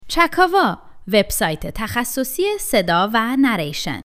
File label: tablighati, male